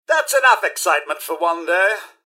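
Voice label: generic robot combat to normal; mrhandy; npc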